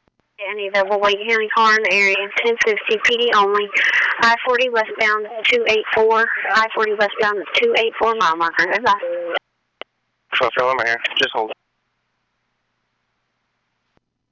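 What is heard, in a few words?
P25 dispatch radio; NC VIPER P25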